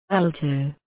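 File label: "alto", British, female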